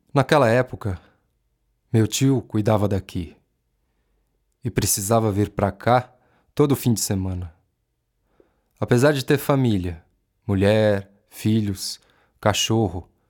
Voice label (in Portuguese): NARRACAO